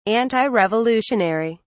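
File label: "antirevolutionary", British English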